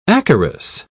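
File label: "acarus", English, British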